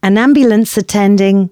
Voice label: announcements, female

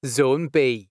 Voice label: Zone B, announcements male

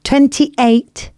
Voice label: announcements female

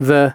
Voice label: announcements, male

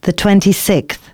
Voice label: announcements female

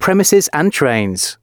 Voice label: announcements, male

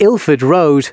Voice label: announcements male

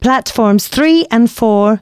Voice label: female, announcements

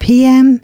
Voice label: announcements female

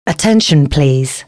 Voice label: announcements female

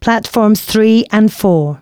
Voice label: announcements, female

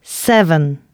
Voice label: announcements, female

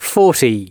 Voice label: announcements male

